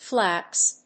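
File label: "Flaps", English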